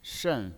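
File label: "shen", Mandarin Chinese pinyin, fourth tone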